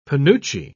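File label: "penuche", British English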